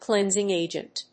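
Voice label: "cleansing agent", English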